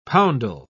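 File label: "poundal", British English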